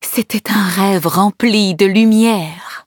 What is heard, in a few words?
victory, fr; Cookie Run